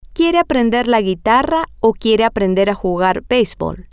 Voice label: he wants to learn the guitar or he wants to learn to play baseball, spanish, F